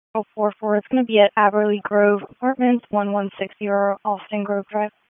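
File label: Raleigh Police Southeast District Dispatch, p25; wake p25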